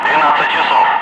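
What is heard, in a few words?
Russian speech, Ka-50 Wingman; DCS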